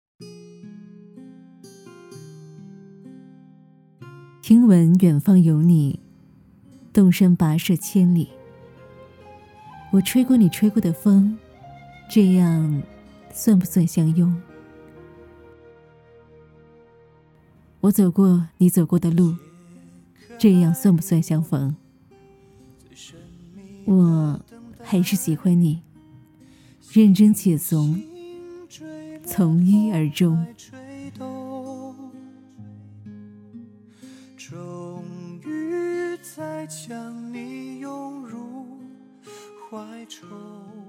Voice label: 走心旁白

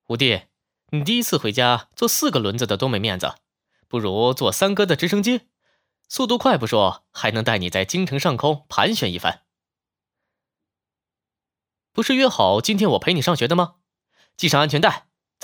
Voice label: 阳光男青年

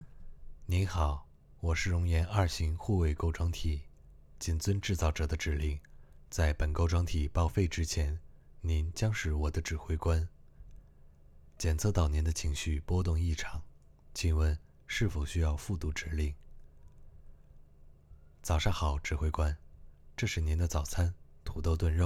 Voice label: 仿生人, 角色/旁白/低音/磁性/青年/机器人, 男, 普通话

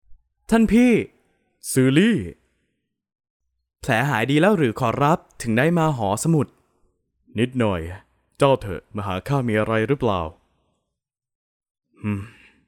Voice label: 泰角色扮演

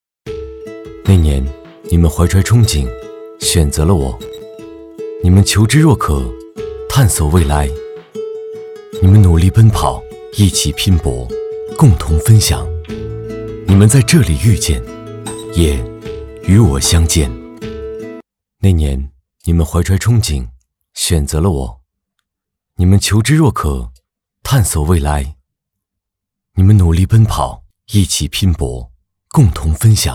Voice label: 科技感广告

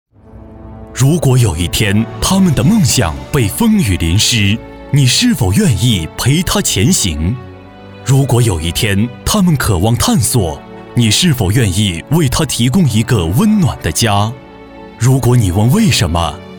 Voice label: 专题 感情 磁性

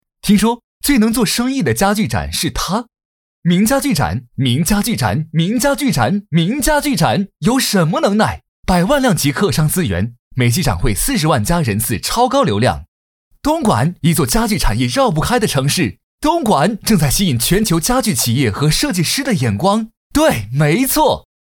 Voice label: 活力情绪变化; 名家具展

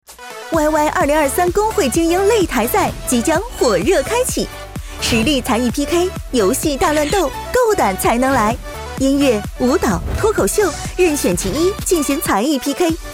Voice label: 广告激情欢快

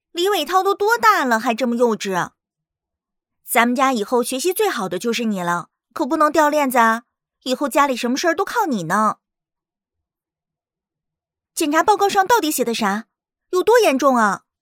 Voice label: 人物 年轻女性

